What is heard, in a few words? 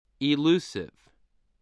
"elusive", spelling